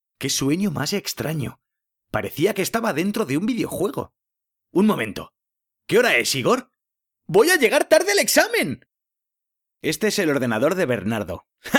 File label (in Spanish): Doblaje; Malditos V rgenes